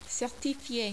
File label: "certifie", French